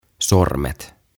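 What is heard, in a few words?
"Sormet" Finnish, "Fingers"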